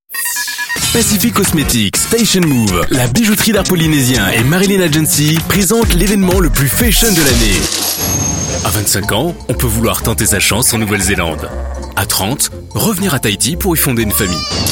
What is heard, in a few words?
voice over